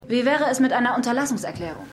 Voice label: Beraterin; Tetris

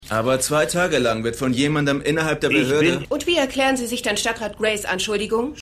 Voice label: Reporter Reporterin; The Wire

